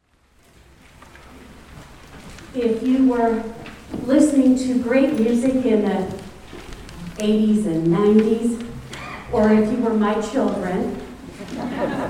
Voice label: Narration